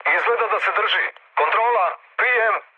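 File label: Serbian chat; War Thunder; speech srb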